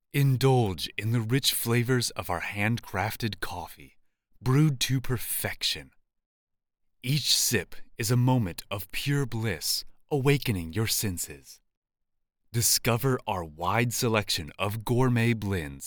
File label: foreigner; 外国人